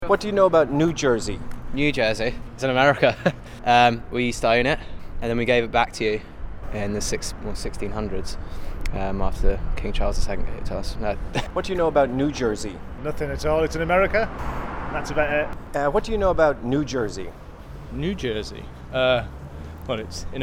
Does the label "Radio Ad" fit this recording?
No